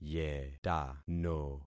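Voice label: "yetano", concatenated syllables; Affixing experiment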